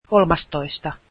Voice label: thirteenth, finnish

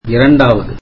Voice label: tamil second

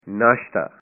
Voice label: "breakfast", punjabi

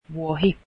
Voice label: finnish goat

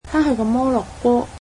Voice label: cantonese he visited Morocco

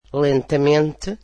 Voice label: "slowly", Portuguese

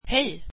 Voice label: swedish hi

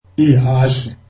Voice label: zulu horse

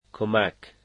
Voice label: Help, dari

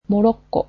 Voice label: "Morocco", japanese

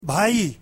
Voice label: urdu brother

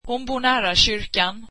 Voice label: swedish she lives near the church

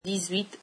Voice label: french eighteen

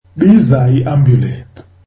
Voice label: zulu Call the ambulance

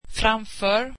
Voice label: swedish in front of

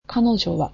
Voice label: "she", japanese

